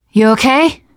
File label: revive friend, teengirl